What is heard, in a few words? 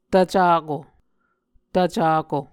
"tvcako", muscogee